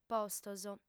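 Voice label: "postos", female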